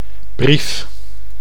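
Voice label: "brief", Dutch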